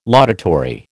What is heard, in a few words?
"laudatory", American